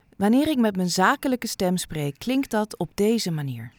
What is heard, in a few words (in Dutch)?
zakelijjk